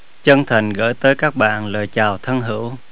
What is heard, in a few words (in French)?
vietnamien; voyager